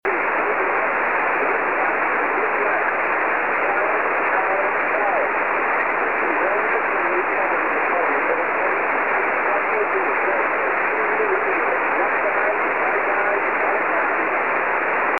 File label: 13cm ssb